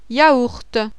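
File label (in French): "YAHOURT", French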